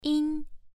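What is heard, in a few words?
"yin1", Chinese pronunciation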